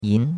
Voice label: "yin2", chinois; Cours-de-Chinois

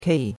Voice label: "kei4", chinois; Cours-de-Chinois

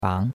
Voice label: "bang2", chinois; Cours-de-Chinois

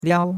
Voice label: "liao1", chinois; Cours-de-Chinois

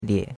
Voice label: "lie3", chinois; Cours-de-Chinois

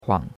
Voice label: "huang3", chinois; Cours-de-Chinois

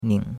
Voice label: "ning3", chinois; Cours-de-Chinois

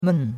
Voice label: "men4", chinois; Cours de Chinois

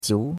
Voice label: "jiu2", chinois; Cours-de-Chinois

